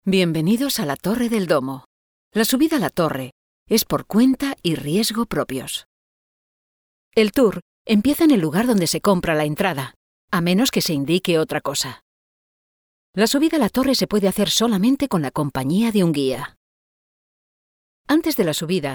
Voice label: female Spanish audioguide